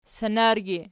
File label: "sanargi", Archi